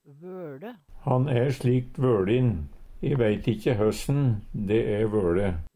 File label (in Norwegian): "vole", Numedalsmål; Han e slik vøLin. E veit ikkje høssen de e vøLe.; Adjektiv